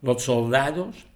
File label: "los soldados", es